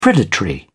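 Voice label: "predatory", British English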